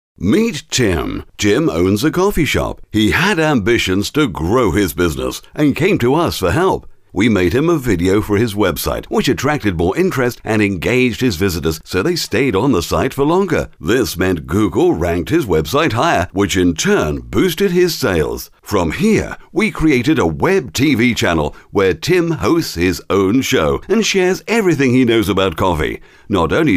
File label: EXPLAINER VOICEOVERS UK VOICEOVER